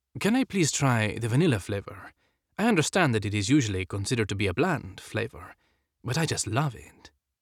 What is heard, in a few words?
Spanish, Spanish - Iberian